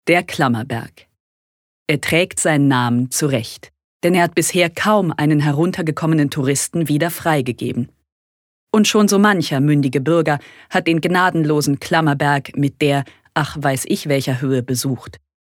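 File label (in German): Kommentar, Neutral; Klammerberg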